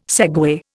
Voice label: "segue", Indian